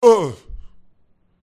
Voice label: human male wound